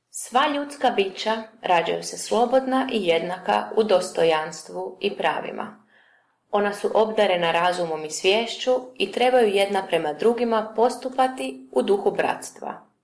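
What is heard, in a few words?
udhr hr, Croatian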